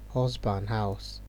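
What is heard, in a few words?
"husband house", Nigerian Pidgin, male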